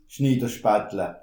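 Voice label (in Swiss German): "schniderspattle", Alsatian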